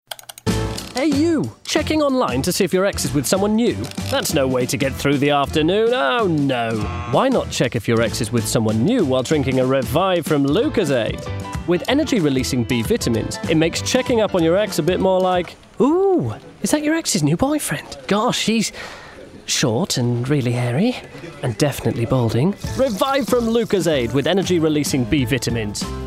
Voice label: COMMERCIAL; Lucozade